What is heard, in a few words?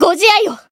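heal, Japanese; azami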